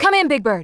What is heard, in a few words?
"Orders", Smooth Babe; Worms Armageddon